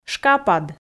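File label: "шкапад", Komi, female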